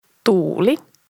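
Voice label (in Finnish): "tuuli", Finnish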